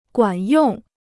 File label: "管用", Chinese